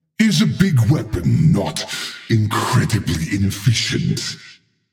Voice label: taunt directed; nemesis; smite; osiris